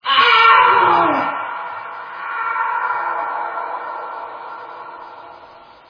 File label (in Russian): muzhskoj golos